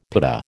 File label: "pitta", American